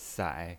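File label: "sai", Mandarin Chinese pinyin voice, third tone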